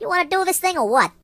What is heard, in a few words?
Hurry, Mobster; hedgewars